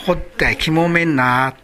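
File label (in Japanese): "kimomeru", 南山形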